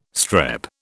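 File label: "strep", British English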